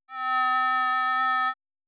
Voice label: sub Harms, roughness

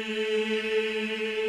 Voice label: Male

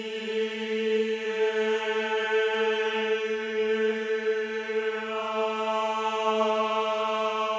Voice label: Male

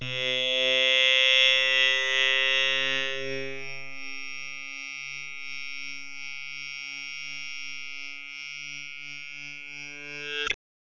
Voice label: speech PSOLA TDIPSOLA

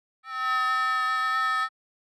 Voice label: sub Harms Filtered; roughness

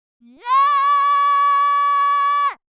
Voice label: MS resynth Clean; roughness

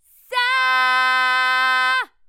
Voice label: amp Mod Voice, roughness

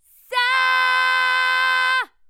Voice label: amp Mod Voice; roughness

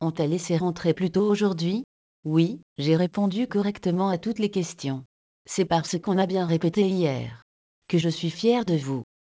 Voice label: dialog

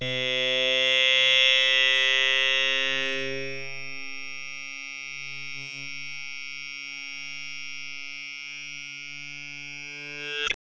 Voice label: speech PSOLA FDIPSOLA

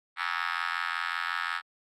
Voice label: sub Harms Filtered, roughness

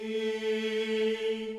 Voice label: Male A

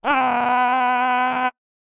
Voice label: rough Synth; roughness

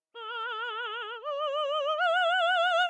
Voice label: voca synt, PSOLA

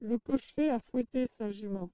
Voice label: gender Trans M F LPC

